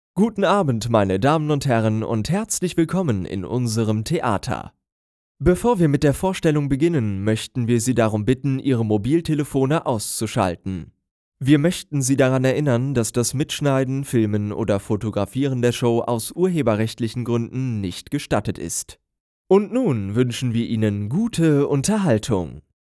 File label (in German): theateransage